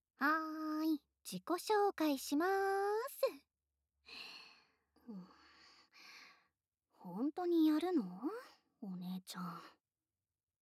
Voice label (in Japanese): 販促用自己紹介, SE無